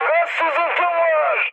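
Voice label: Turkish damaged water, mood high, speech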